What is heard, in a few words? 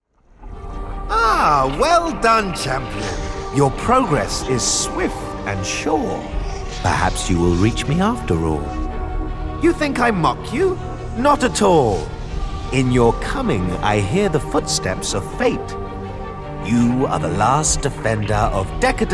Animation Wizard